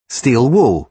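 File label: "steel wool", British English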